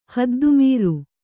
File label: "ಹದ್ದುಮೀರು", Kannada